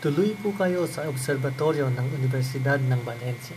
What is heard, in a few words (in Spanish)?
tagalo